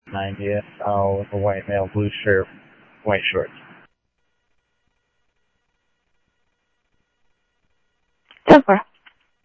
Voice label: Sheriff, scanner audio; MCSO - EAST Sheriff-EastCnty; South Scottsdale

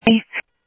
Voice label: Info Sheriff Common, scanner audio trunk; South Scottsdale

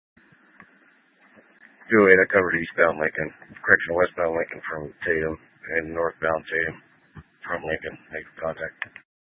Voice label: Dispatch, scanner; Paradise Valley PD; H- Scottsdale

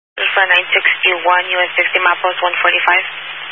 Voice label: Highway Patrol, Phoenix Metro West Area, scanner

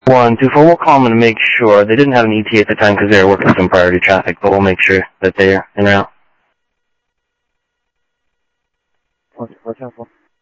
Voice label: scanner audio, Sheriff; South Scottsdale; MCSO - EAST; Sheriff-EastCnty

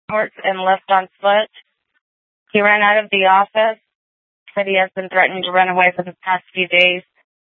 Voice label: Sheriff, scanner; Maricopa County (P25) - Thompson Peak; Shrf Northeast

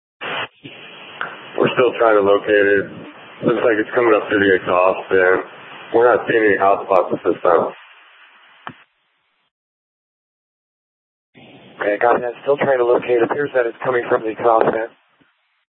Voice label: FD Departments VHF 154.800 Patch, scanner

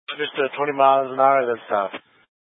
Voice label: Light Rail Emergency, scanner

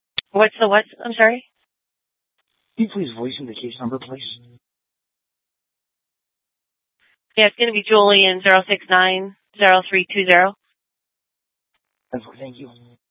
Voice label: Dispatch, scanner

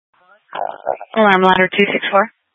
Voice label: Fire Departments, scanner

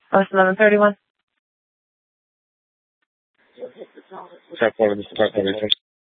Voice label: Sheriff, scanner

